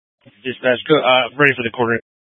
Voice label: Fire Dispatch